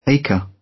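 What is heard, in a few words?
"acre", British; Time, history; Thời gian, lịch sử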